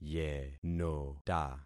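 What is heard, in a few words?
"yenota", concatenated syllables; Affixing experiment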